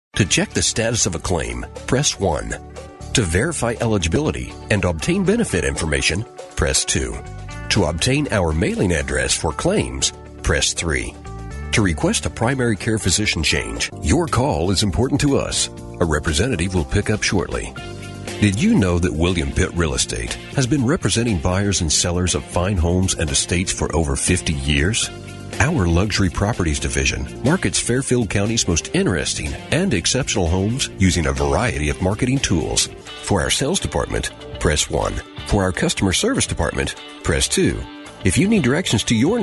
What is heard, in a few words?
male US telephone; uk sound studios